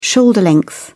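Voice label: "shoulder length", British English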